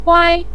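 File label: "wai", Mandarin Chinese syllable, neutral tone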